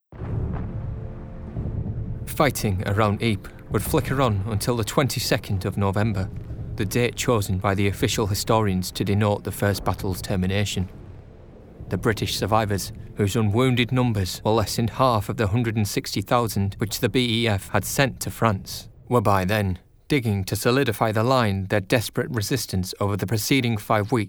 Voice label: Narration Reel